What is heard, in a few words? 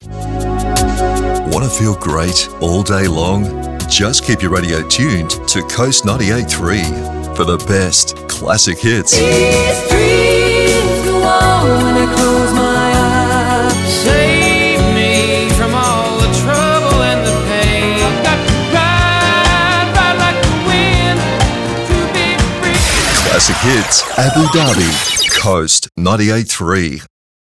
Imaging